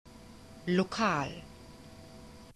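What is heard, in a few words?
"lokal", German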